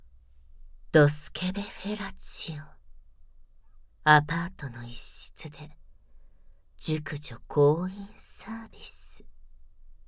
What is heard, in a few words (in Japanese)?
タイトルコール, ASMR